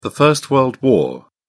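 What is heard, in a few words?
"first world war", British English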